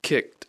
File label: "kicked", English